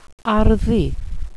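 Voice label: "arddu", welsh pronunciations; Wales; Wales/welsh names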